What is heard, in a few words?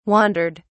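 "wandered", English, British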